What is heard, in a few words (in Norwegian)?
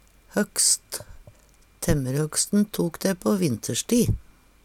hokkst, Numedalsmål; Handverk (metall, tre, lær)